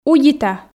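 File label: "удита", Komi, female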